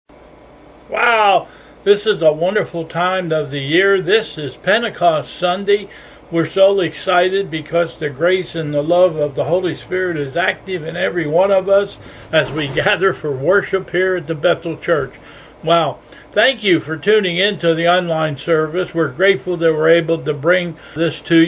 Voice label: Welcome and Announcements